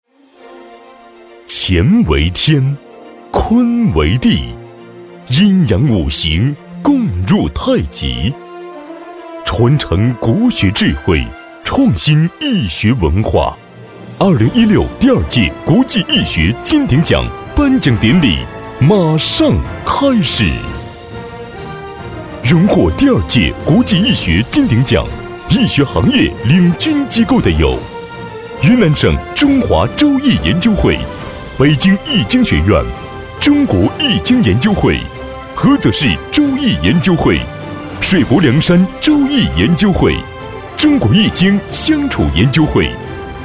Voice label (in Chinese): 男, 颁奖; 易学文化